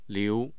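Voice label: "liu", Mandarin Chinese, tone 2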